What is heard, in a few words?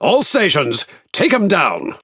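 Speech Priority Target, British English; World Of Warships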